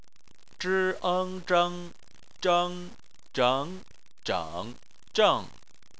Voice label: zheng, chinese